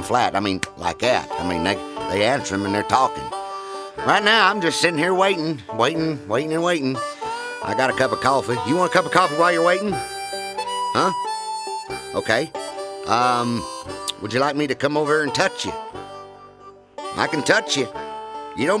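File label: On Hold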